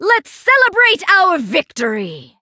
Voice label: victory, en; cookie run